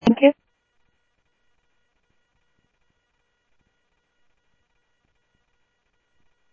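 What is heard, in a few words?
Sheriff West County, scanner audio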